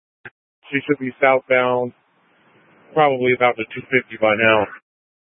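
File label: Dispatch, Scanner audio recording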